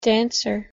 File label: pronunciation en dancer